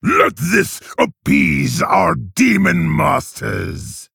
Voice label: Male Orc Idle